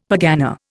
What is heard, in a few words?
"pergana", Indian; Dictionary